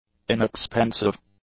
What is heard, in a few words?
"inexpensive", English; adjectives